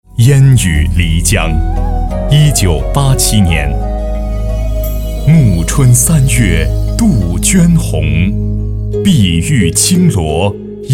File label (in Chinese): 其他 朗诵, 男国; 漓江